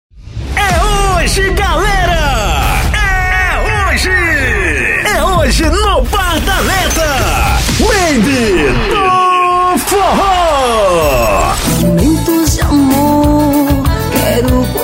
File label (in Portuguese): LOCUTOR